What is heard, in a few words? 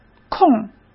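"空儿", Mandarin Chinese; chinese flashcards; hsk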